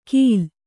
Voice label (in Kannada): "ಖಿಲ", Kannada